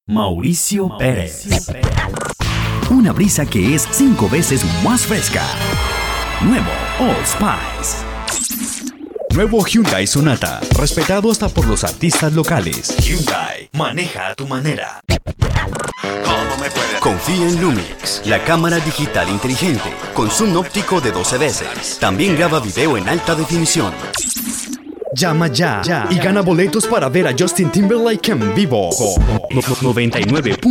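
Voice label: Spanish Latin American, male, commercial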